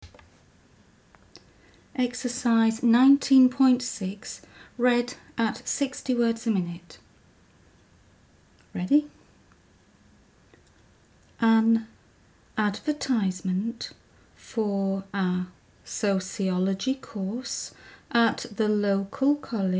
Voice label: 60wpm; Teeline